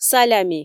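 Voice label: "салями", Komi, female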